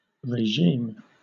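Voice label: "regime", British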